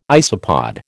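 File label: "isopod", American English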